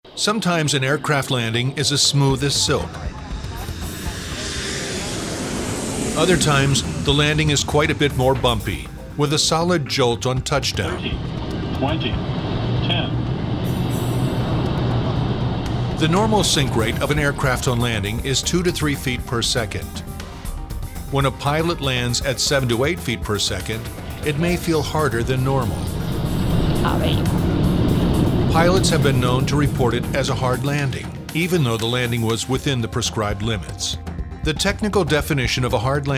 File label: Explainer